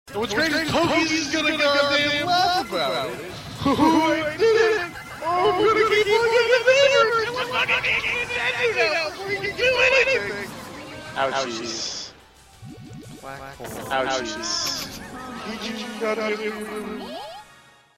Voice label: dude very mad